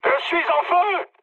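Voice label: French on fire mood high; War Thunder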